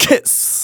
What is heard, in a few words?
Phrase Male Rap; Vengeance Samples